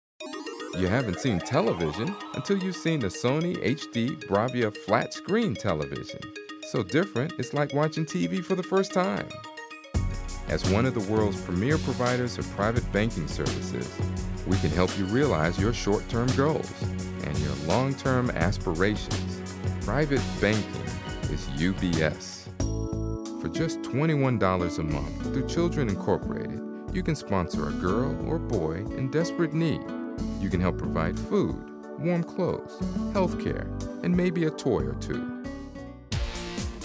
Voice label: Spots